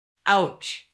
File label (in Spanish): Voice Acting es F0